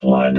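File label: "flood", robot voice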